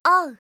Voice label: "o", alphabet